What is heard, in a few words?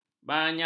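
"banyak", Malay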